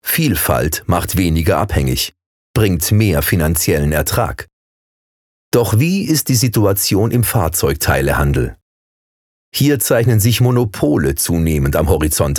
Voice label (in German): Image- bzw. Industriefilm — Sprecher